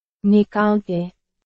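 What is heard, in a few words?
Burmese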